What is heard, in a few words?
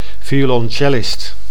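"violoncellist", Dutch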